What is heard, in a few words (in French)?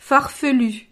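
"farfelu", French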